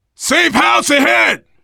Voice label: safe spot ahead, voice, biker; Left 4 Dead 2; left4dead2 dlc3; survivor